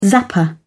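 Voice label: "zapper", British English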